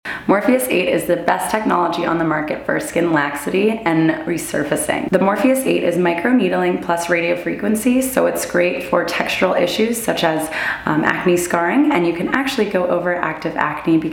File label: voiceover; skinney medspa; meta ad video; morpheus 8